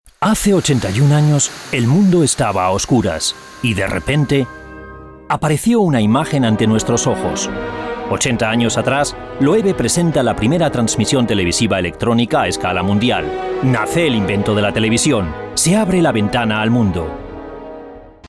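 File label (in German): Spanish Werbung Lebendig, voices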